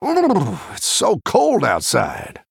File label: Male Human Idle